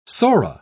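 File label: "sora", British English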